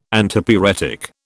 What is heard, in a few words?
"Antipyretic", British